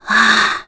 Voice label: Link Relieved

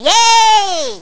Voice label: Girl "Yay"; Girls: "Yay!"

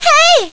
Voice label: Girl "Hey"

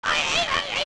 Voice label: Twinrova Argue; OOT

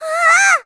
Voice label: Worried; Aryll worried; Zelda

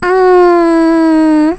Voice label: Worried

